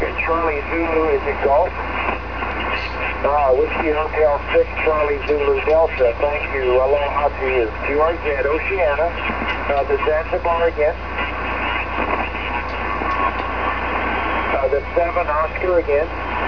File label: 20 ssb